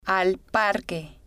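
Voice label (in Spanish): al Parque, spanish, female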